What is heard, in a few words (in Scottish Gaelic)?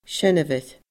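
"seanmhair", Scottish Gaelic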